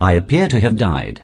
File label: posh headcrab dead; garrysmod